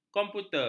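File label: "komputer", Malay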